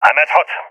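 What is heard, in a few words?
at hut, voice, agents, balkan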